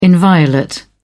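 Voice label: "inviolate", British English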